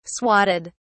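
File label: "swatted", British English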